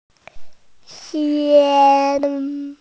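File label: "xiang1", Chinese, F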